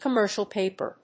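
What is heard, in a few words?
"commercial paper", English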